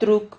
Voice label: "truc", Silabas

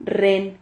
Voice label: "rren", silabas

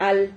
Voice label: "hal", Silabas

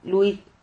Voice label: "luid", Silabas

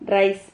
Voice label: "rrais", silabas

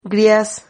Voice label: "grias", Silabas

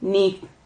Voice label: "nip", Silabas

